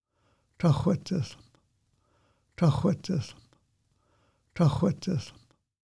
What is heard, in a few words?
Pacific Yew Musqueam name pronunciation